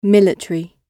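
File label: "military", British English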